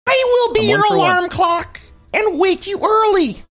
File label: saying i will be your alarm clock funny